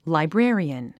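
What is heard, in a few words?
"librarian", English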